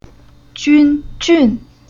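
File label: "Jun", Chinese syllable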